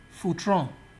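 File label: "footreon", Nigerian Pidgin, male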